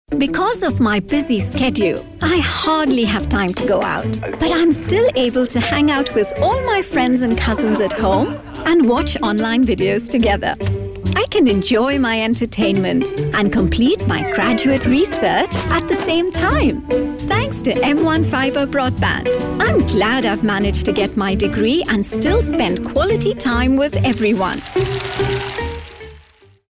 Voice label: female indian voice over character